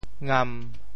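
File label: "ngam1", Teochew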